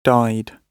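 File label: "died", British English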